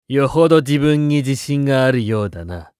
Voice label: 戦闘開始1, クール系ボイス; 戦闘ボイス

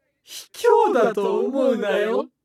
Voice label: 化物人ボイス, アイテム使用2; 戦闘ボイス

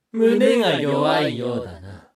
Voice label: 化物人ボイス, 胸が弱点; 戦闘ボイス

